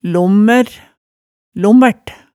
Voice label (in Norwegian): "lommær", hemsedalsmaalet tale